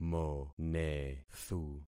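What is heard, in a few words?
"monethu", concatenated syllables; Affixing experiment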